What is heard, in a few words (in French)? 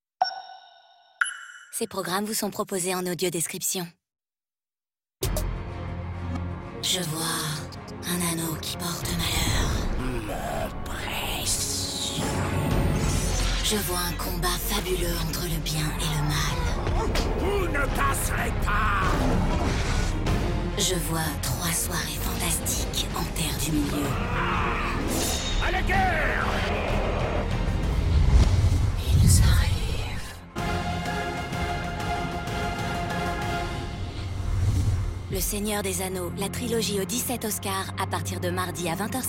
F FR BA; Trilogie Seigneur des anneaux